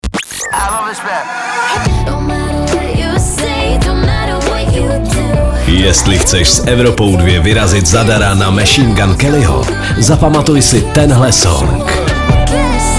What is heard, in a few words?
liner